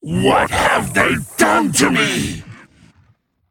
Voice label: Male Observer Play